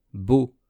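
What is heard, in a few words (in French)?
"beau", French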